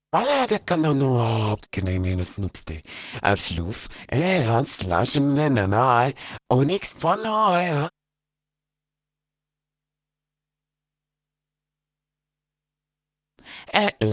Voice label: talk Casual; Singles; French Version

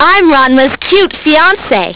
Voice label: cute; ranma

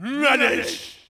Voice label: men

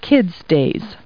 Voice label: people, kid

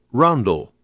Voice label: "ROUNDEL", pronoun

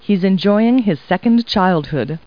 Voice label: people, kid

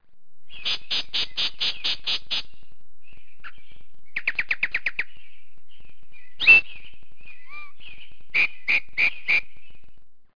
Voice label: chat

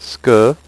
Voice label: "ce que", French; HyperSound™2

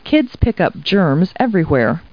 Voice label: people, kid

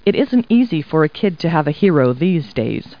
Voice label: people, kid

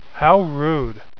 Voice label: vulgar

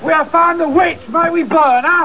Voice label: witch; Siege+THF; taunts

